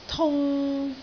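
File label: "tung3", Cantonese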